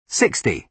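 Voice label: "sixty", British English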